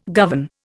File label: "govern", Indian